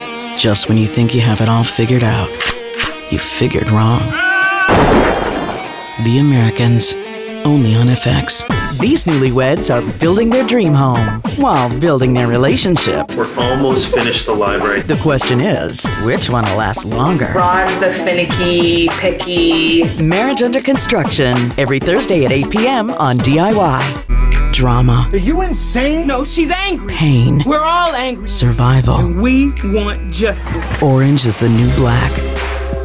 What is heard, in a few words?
Promo